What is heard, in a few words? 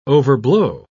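"overblow", British English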